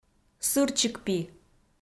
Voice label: "сырчикпи", Komi